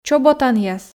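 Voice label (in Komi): "чоботанъяс", Komi, female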